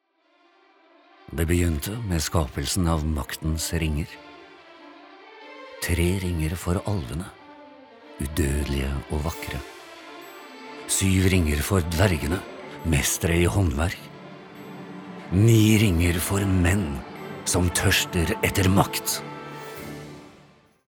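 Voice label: Norwegian movie trailer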